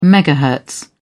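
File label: "megahertz", British English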